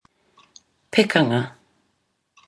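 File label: "pekanga", Māori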